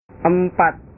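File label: "empat", Indonesian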